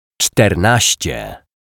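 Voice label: "czternascie", Polish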